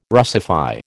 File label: "Russify", American